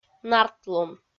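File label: "нартлун", Komi, female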